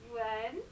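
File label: "one", English, England, female; wən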